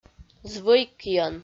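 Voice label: "звӧйкйӧн", Komi, female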